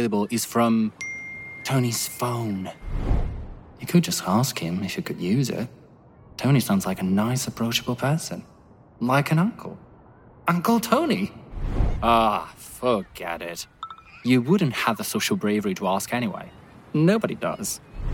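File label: Italian, Accented English Commercial; voice demos; GoLocalise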